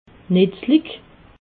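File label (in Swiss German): "netzlich", Alsatian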